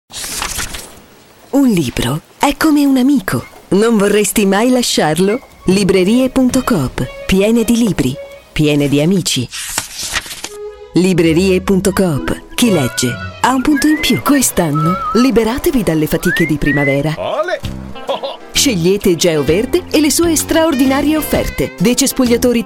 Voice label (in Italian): spot